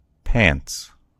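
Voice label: "pants", American English; gcompris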